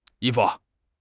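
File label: "yi1 fu5", Mandarin Chinese; HSK1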